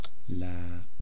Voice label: "laa", East Cree syllabics